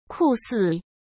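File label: "酷似", Mandarin Chinese; chinese-flashcards; hsk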